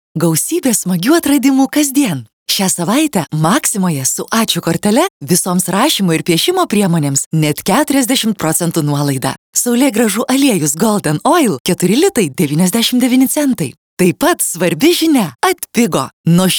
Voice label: lithuanian female

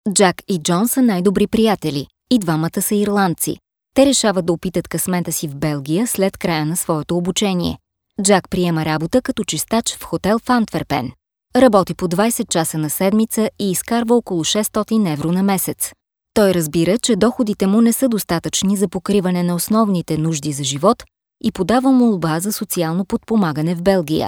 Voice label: bulgarian female

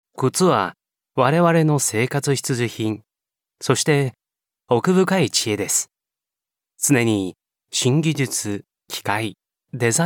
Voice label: japanese male

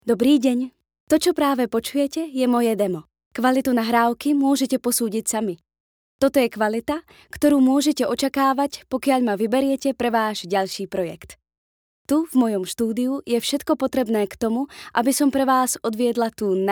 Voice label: slovak female

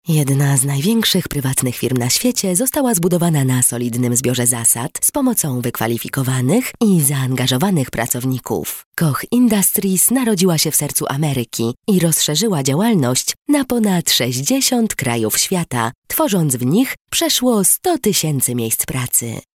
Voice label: polish female

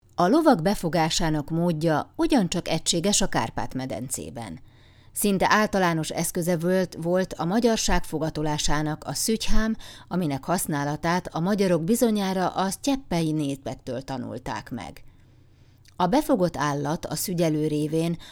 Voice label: hungarian female

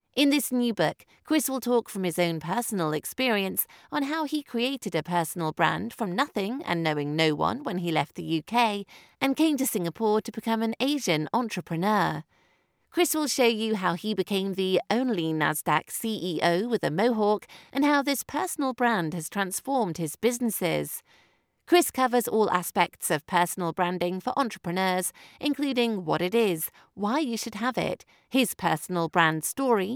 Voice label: english UK female

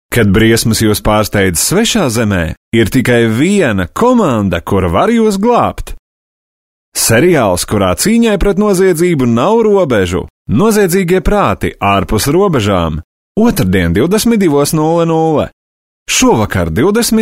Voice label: latvian male

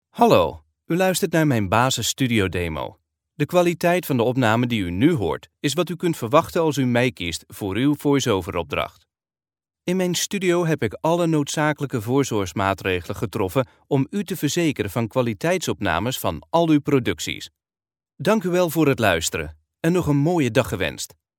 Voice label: dutch male

